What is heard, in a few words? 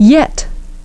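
"yet", English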